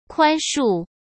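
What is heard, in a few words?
"宽恕", Mandarin Chinese; chinese-flashcards; hsk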